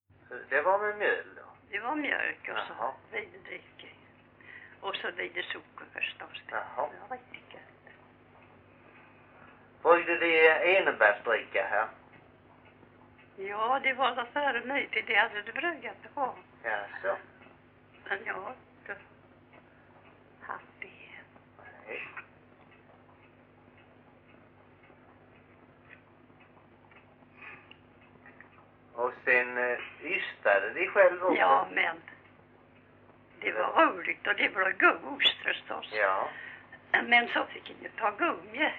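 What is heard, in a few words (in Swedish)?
Grimeton Halland, dialekter; Folkminnen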